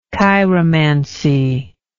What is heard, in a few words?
"chiromantical", English